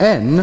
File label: "n", male British; Alphabet